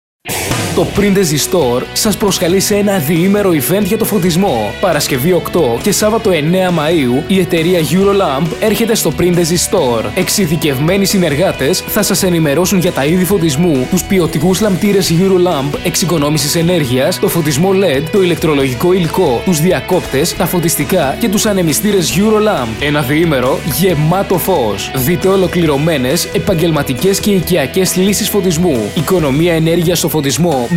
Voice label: MALE VOICE HYPER